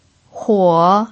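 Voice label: "huo" third tone, pinyin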